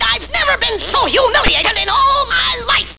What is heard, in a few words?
daffy humiliated